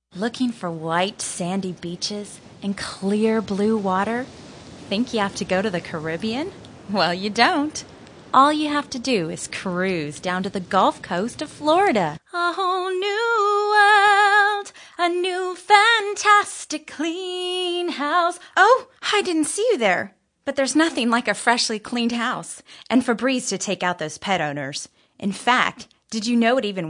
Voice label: english females